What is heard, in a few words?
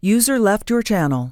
neutral switched away from current channel; TeamSpeak 3